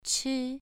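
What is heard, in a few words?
"chi" Mandarin, second tone, pronunciation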